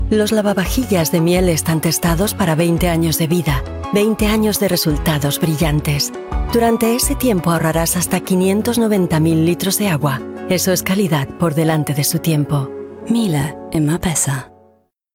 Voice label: Werbung Spanisch

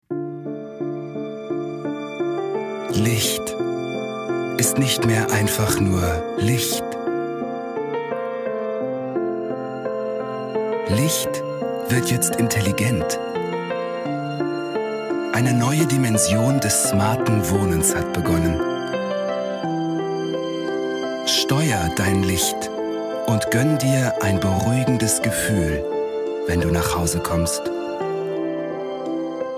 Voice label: werbung smarthome; rwe

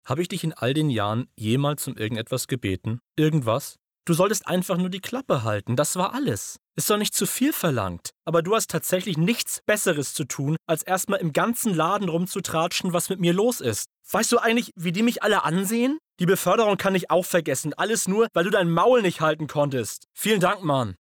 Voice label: casting sync enttaeuscht

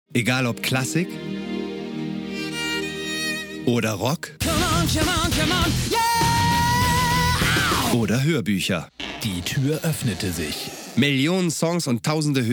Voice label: hoerbuecher; napster

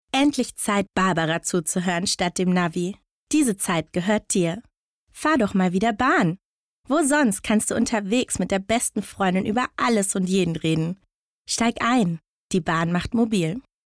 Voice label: Werbung Bahn

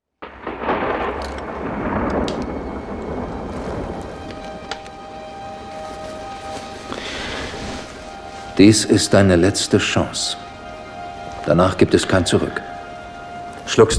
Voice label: synchron; laurence fishburne

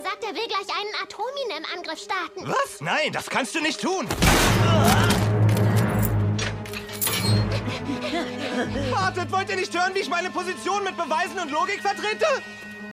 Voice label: Lip-Sync (Synchron), Game, Trick, German; Solar Opposites (Disney) - Harrisson

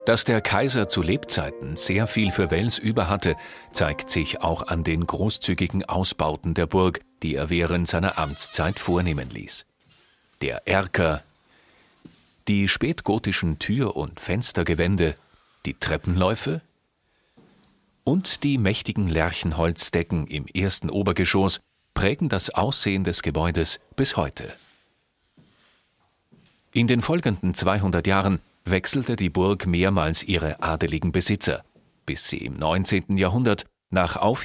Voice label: Historisch Doku

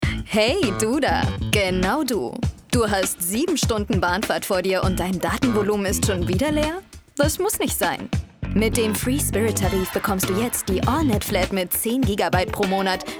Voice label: Werbung werblich; LOFT VOICES

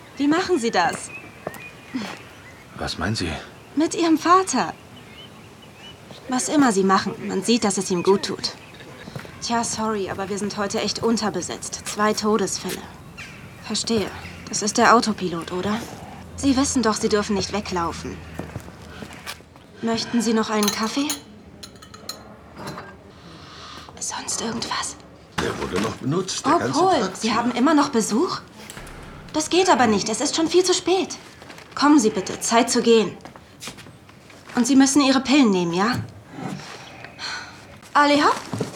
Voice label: Tiefere Stimmlage, Film; Belgischer Film

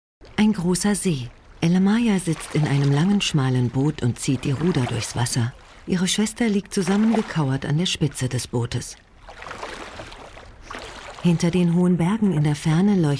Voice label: Audiodeskription; LOFT VOICES

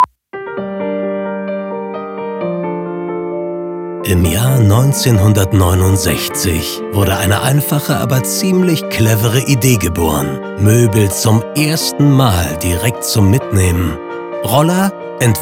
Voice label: Unternehmensfilm Music, Commercial (Werbung), German; Roller; Familie

